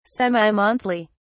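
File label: "semi monthly", English, British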